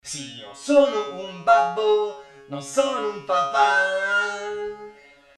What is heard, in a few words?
robotic vox; amore&guerra(2006)